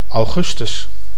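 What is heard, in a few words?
"augustus", Dutch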